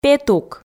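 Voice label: "петук", Komi, female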